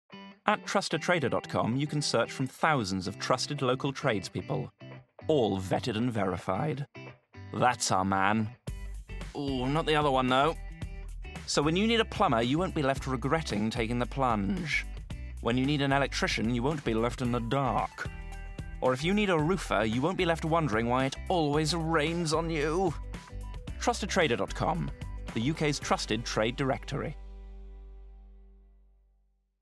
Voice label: Commercial; TrustATrader